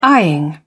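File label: "eyeing", British English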